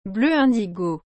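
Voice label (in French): "bleu indigo", French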